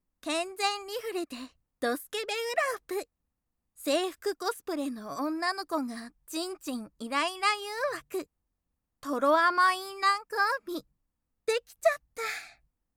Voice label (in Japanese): タイトルコール